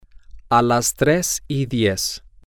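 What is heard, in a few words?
Spanish, three ten, male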